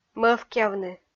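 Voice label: "мӧвкъявны", Komi-Zyrian, female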